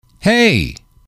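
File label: "hey", English, male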